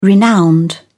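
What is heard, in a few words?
"renowned", English, British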